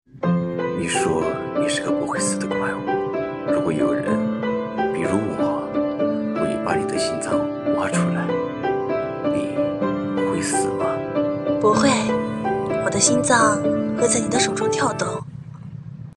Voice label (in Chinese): 男女对白